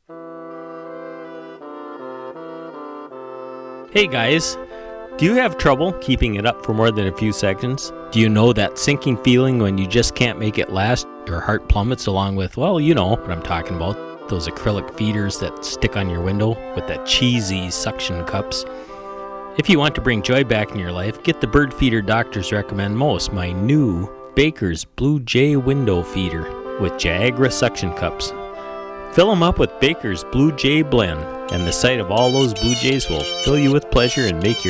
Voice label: Commercial